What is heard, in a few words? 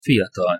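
"fiatal", Hungarian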